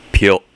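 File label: "pyo", Japanese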